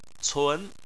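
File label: "cun" second tone, Chinese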